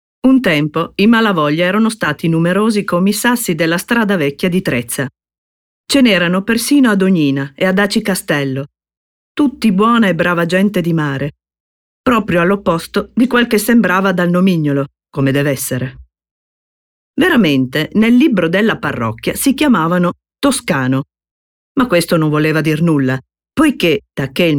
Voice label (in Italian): Descrittivo